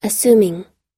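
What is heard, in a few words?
"admiring", US English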